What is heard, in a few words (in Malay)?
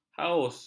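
"haus", Malay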